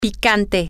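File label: spicy hot, Spanish, female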